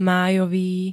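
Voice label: "májový", Slovak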